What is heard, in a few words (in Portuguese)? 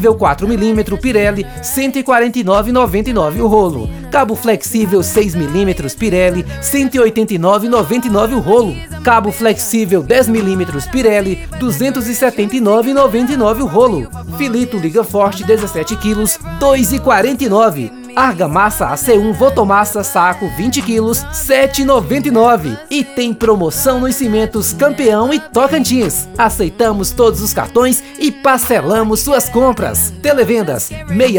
voz locutor materiais de construção; america materiais de construção